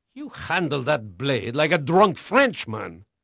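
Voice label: Portuguese male, voice; Pirates Of The Caribbean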